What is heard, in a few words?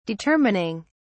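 "determining", British English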